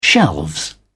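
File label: "shelves", British English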